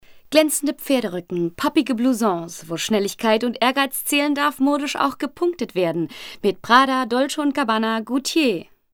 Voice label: female german shop comercial; ideasonora